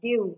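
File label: "deal", Nigerian Pidgin, female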